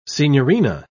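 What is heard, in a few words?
"signorina", English, British English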